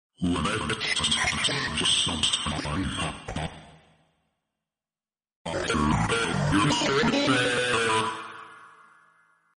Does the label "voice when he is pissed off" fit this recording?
Yes